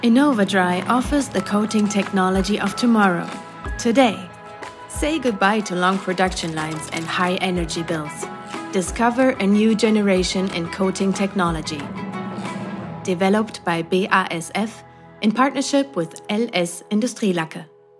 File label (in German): Erklärfilm englisch; BASF